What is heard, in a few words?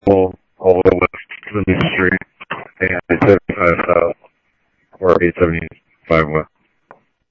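Simulcast Chandler Patrol B, scanner; Chandler-Ptrl B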